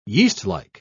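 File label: "yeastlike", British English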